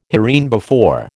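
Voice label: "hereinbefore", American English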